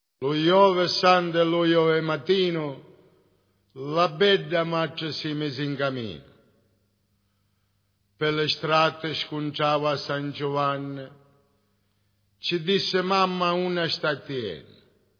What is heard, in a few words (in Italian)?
passione e morti recitato